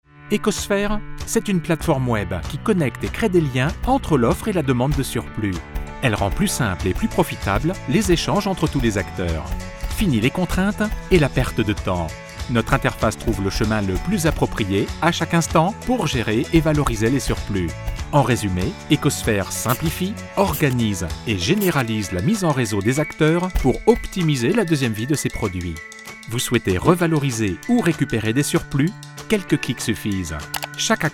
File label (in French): voix off; eqosphere